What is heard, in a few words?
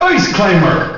narrator power up; Super Smash Bros. Melee